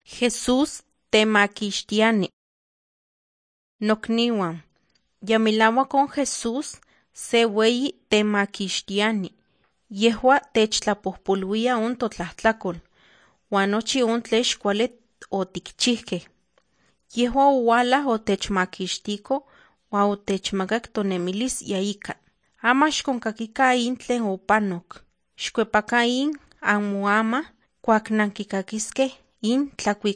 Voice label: nahuatl guerrero tlapa; the bible league nt drama

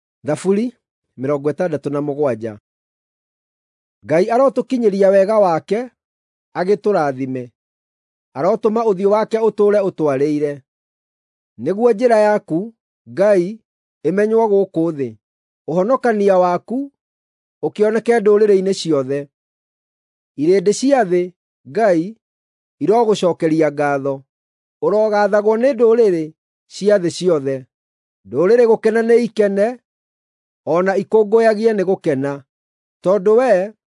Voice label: psalms, gikuyu drama

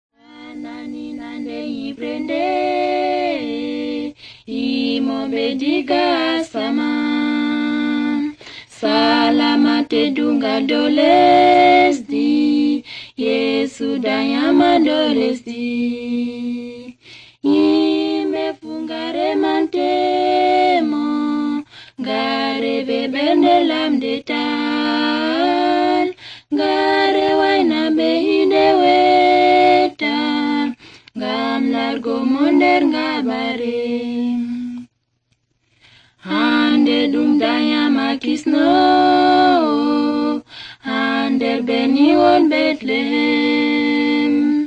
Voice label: words of life adamawa fulfulde, drama

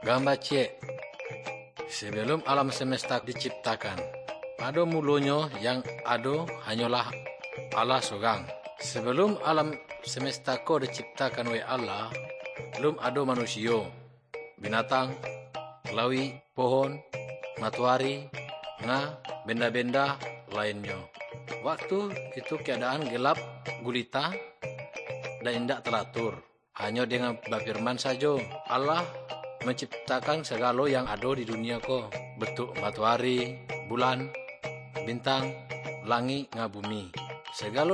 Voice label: good news minangkabau muko muko; kaba ilo

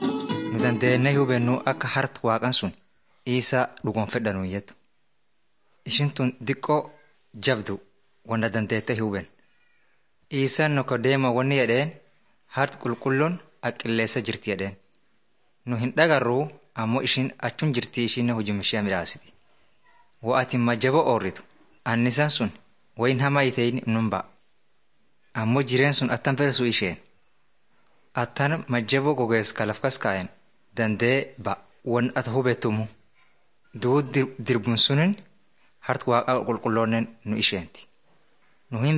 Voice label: teaching tape orma; bible lessons

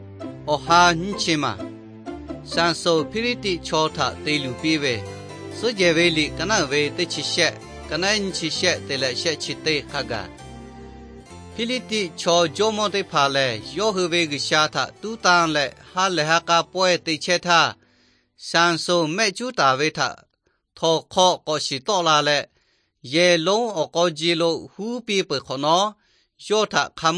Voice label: victory through god lahu na, nt drama

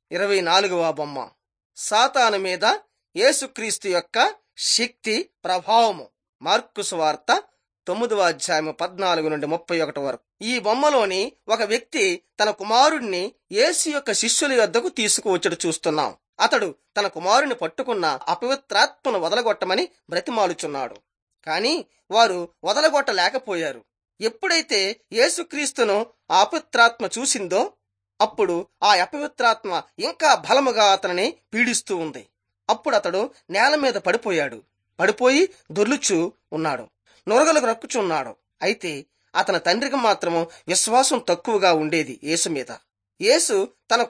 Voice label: telugu bangladesh; jesus teacher and healer

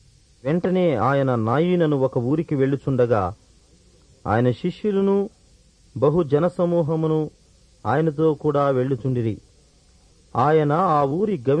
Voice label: telugu; portrait of jesus

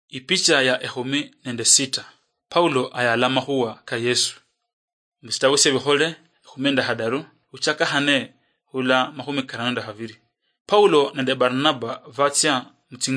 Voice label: acts of the holy spirit luyia marachi, drama

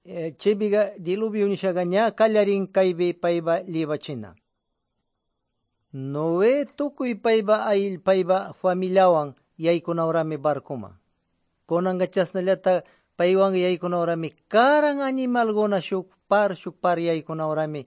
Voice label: good news quichua de pastaza

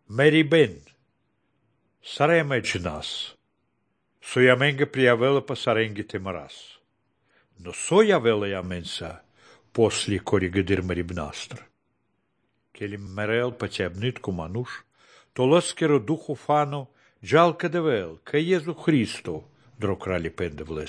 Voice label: good news baltic romani

